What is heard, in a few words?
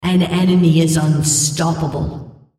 female Killing Spree